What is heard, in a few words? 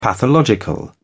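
"pathological", British English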